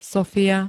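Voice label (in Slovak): "Sofia", Slovak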